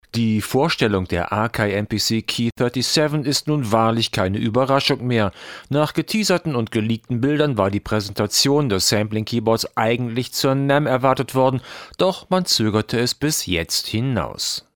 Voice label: Hercules Stream 200 XLR Radio Bright